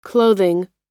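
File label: "clothing", American English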